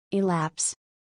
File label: "Elapse" Pronunciation